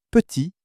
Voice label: "petit", French

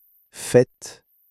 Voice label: "fête", French

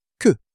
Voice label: "que", French

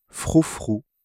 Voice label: "froufrou", French

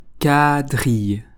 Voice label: "quadrille", French

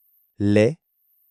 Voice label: "lait", French

